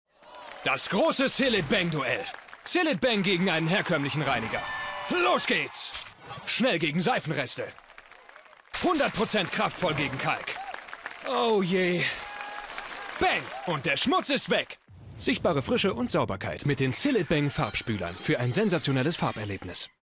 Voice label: tv werbung; cillit bang

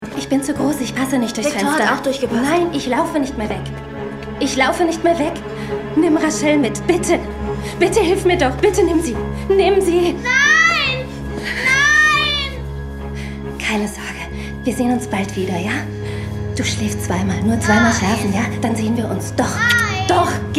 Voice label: synchron; fannys reise

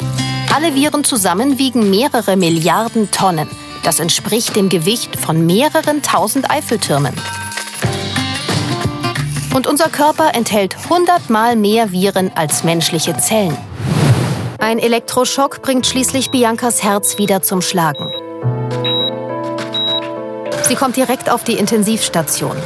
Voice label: kommentar; arte xenius

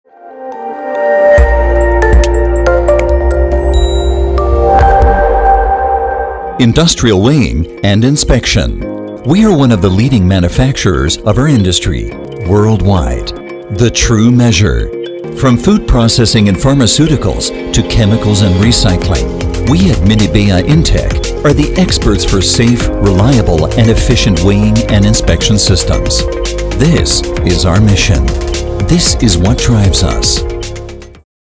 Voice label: corporate Imagefilm eng; minebea intec

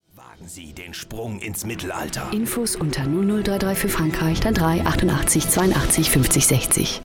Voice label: de radiowerbung

